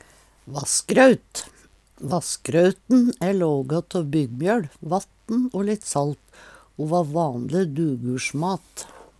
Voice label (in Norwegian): vassgraut, Numedalsmål; Vassgrauten æ lågå tå byggmjøL, vatten o litt salt o va vanLe dugursjmat.